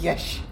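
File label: "avocado", Tlacolula Zapotec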